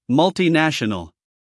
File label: "multinational", US male; everyone-can-use-english